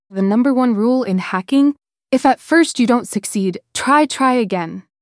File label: upset; nicole; fertig classic games